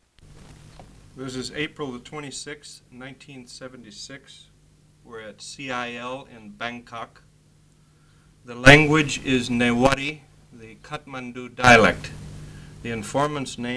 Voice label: Newati Consonants and Vowels

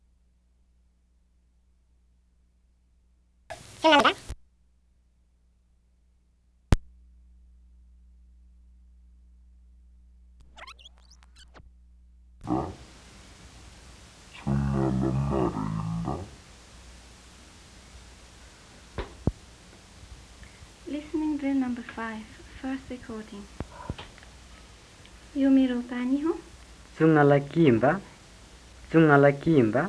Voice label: Western Tamang

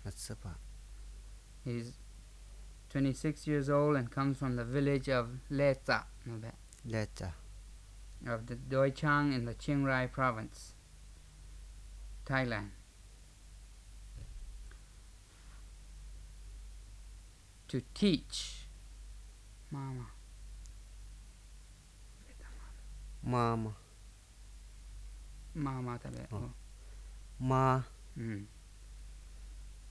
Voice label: Flowery Lisu Tones

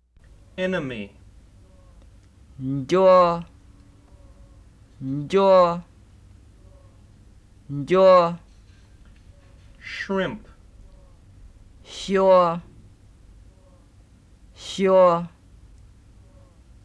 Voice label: Green Maio, Consonant Ear Training Tape

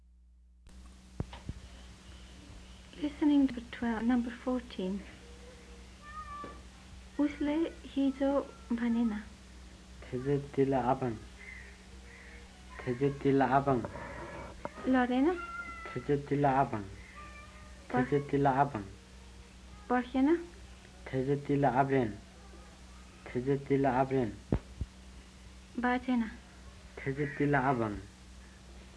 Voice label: Western Tamang

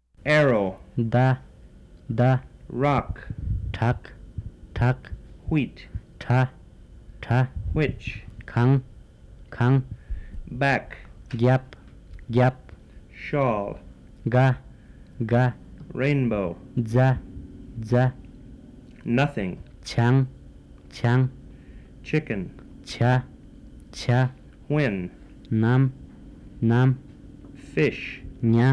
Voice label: Jirel Cons Vowels

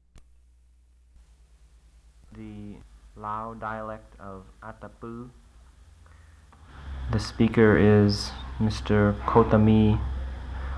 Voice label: Lao

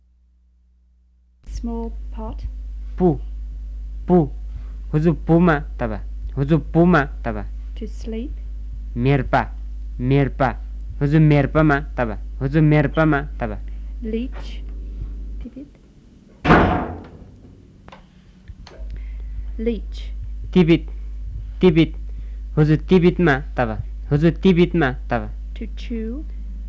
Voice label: Western Tamang

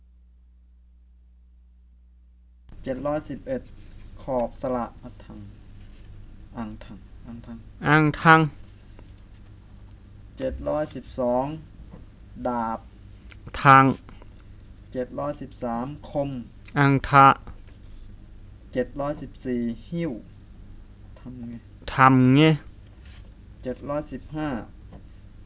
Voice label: Bisu or Misu Wordlist

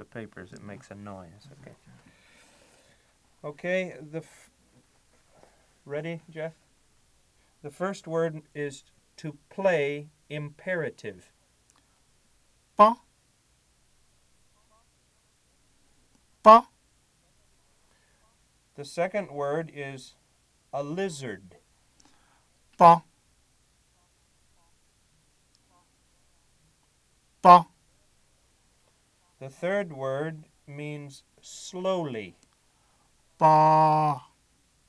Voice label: Bete plosives

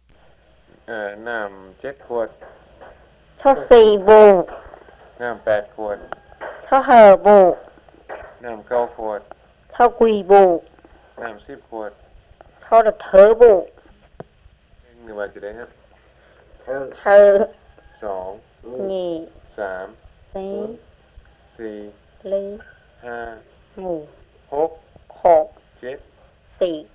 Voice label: Wordlist and Tone

